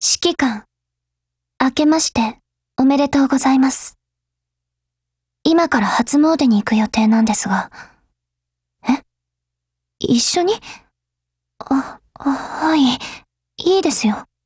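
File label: NEW YEAR, Japanese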